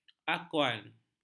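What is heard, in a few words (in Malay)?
"akhwan", Malay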